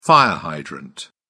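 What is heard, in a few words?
"fire hydrant", British English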